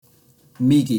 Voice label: "Migi" Japanese